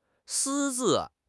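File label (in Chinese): "私自", Chinese; HSK6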